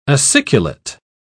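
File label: "aciculate", British English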